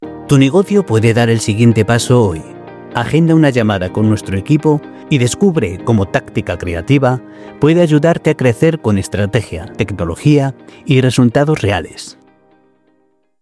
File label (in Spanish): anuncio de campaña, CTA para captación de leads; Demo para anuncio de campaña – CTA para captación de leads